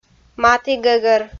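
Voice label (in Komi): "матігӧгӧр", Komi-Zyrian, female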